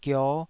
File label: "gio5", taiwanese